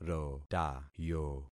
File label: "rotayo", concat syllables; Affixing experiment